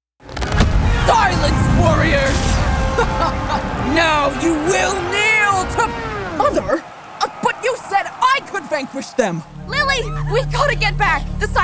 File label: Animation